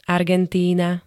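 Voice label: "Argentína", Slovak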